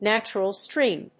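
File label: "natural stream", English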